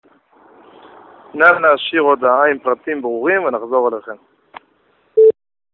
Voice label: Call recording